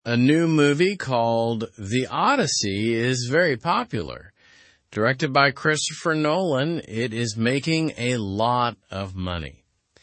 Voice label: english through news lower intermediate slow; the odyssey movie sparks book interest